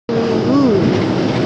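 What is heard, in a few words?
"fu2wu4", Mandarin Chinese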